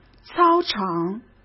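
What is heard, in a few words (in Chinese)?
"操场" Mandarin Chinese; hsk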